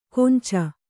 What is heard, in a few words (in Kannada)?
"ಕೊಂಚ", Kannada